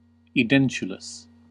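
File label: "edentulous", American English; pronunciation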